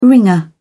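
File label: "wringer", English, British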